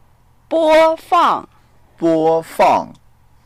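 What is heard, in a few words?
"bo1fang4", Mandarin Chinese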